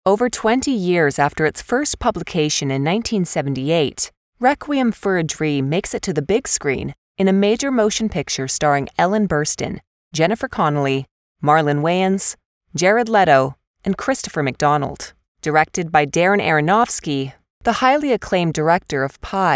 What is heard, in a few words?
english us and canada female narrative